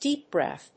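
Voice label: "Deep Breath", English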